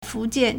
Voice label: "fu2 jian4", Chinese